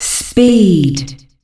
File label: "Speed", female announcer; Unreal Tournament; ut2004